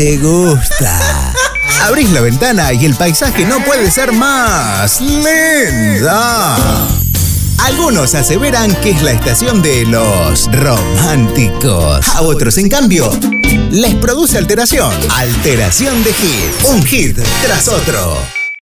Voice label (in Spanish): Otoño Tropical Masculino